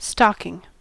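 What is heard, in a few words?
"stocking", US English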